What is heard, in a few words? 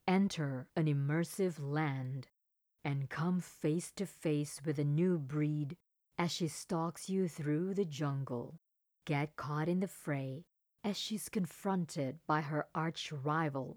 ENGLISH CASUAL